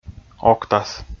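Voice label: "октас", Komi, male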